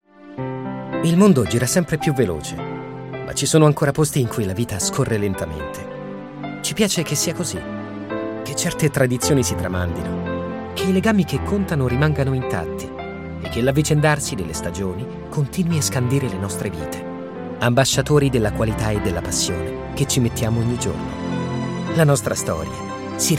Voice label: italian audiobooks

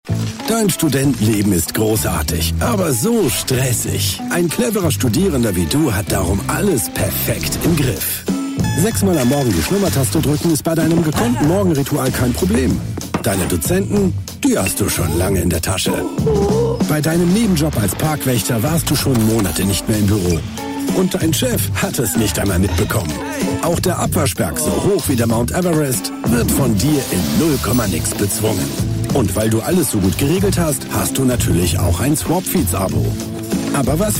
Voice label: german others

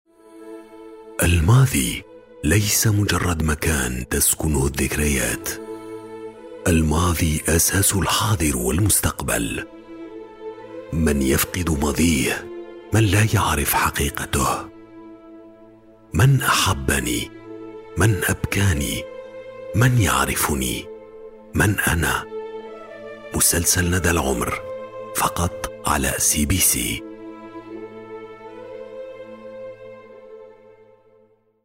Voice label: arabic movie trailers

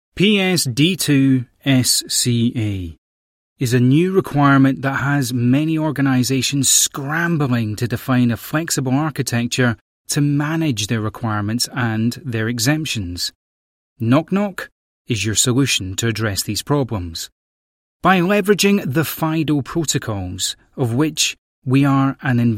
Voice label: english british promos

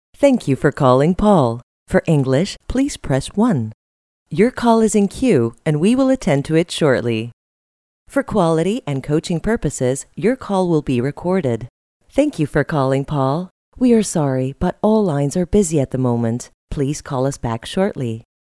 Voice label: english canadian ivr

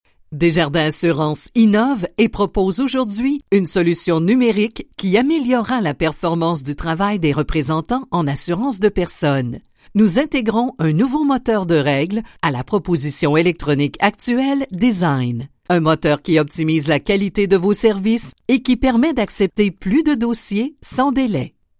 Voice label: french canadian promos

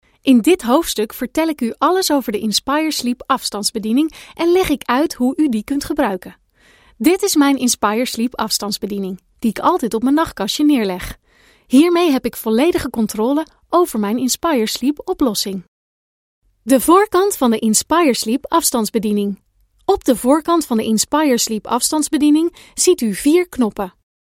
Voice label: dutch e-learning

